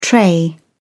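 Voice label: "tray", British English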